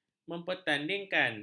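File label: "mempertandingkan", Malay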